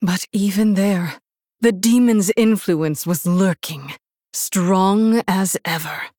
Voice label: Human Female; Jaina